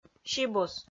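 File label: "сибус", Komi, female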